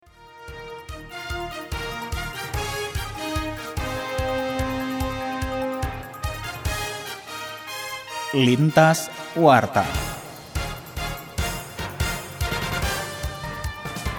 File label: Indonesian Commentary